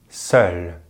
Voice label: "seul", French; Vocales orales en francés; easypronunciation